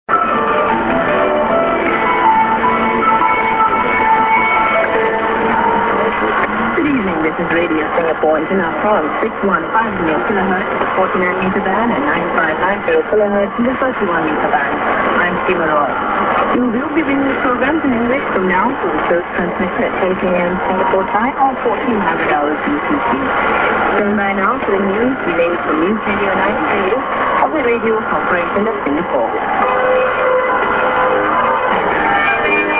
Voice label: Eng. St. IS->ID+SKJ(man)->music->